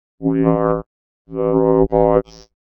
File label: VOICEFX ELCTR 140; Techno2